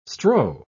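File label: "strow", British English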